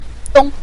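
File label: "dong" Mandarin Chinese, neutral tone; 咚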